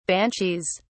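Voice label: "banshees", British English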